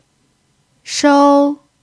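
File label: "shou" first tone, chinese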